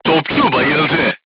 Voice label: voice message gunlayer contused, turkish